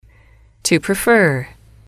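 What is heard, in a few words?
"to prefer", English, female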